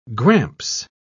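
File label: "gramps", English, British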